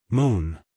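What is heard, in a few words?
"moon", American English male; everyone-can-use-english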